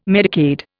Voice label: "Medicaid", Indian